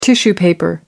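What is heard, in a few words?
"tissue paper", British English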